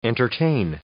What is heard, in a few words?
"entertain", English